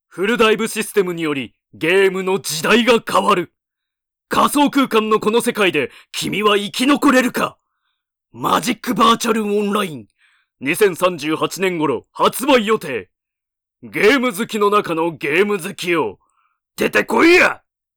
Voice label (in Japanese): ナレーション